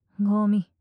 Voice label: ゴミ・強く・近・左, ASMR; マゾオスのあなたに敗北射精の快楽を叩き込む音声

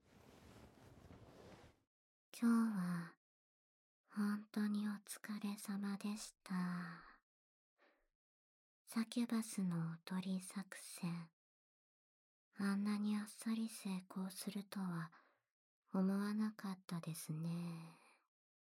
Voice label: おまけ『今日は……ゆっくり甘えてもいいですかー？』, ASMR, 環境音無し

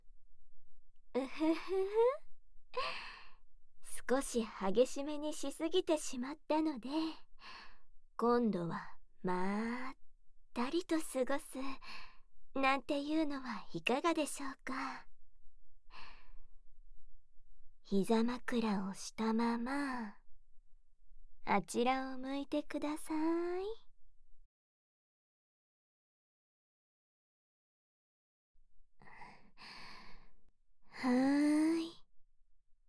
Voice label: 吐息たっぷりカウントダウン 安眠誘導 囁きブースト 環境音なし, ASMR; 耳塞ぎ舐め; 隠れ宿 夢見亭 乃々佳; 和風癒しASMR

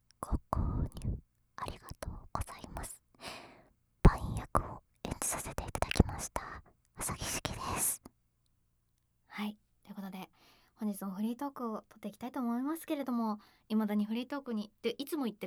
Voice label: フリートーク; SEなし; KU100

